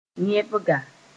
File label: "yẽbga", Mooré; crocodile